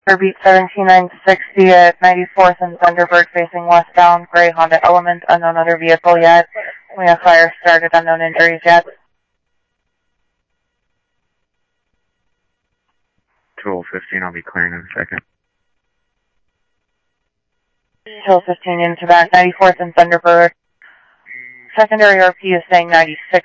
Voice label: Scottsdale PD Scottsdale Police, scanner audio